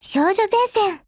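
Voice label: Title call, Japanese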